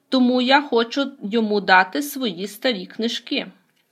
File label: dialogue, Ukrainian